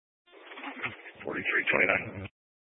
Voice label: Sheriff Dispatch, scanner; Mesa County

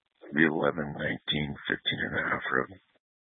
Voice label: Mesa County Sheriff Dispatch

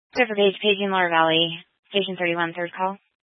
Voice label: Fire Dispatch, scanner

